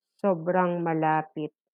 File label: Tagalog